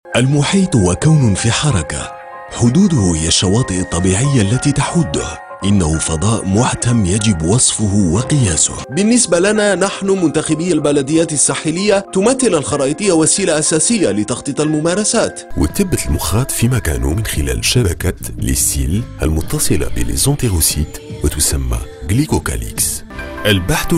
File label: arabic documentaries